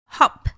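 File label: "hop", English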